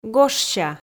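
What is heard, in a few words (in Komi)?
"гожся", Komi-Zyrian, female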